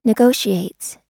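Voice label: "negotiates", American English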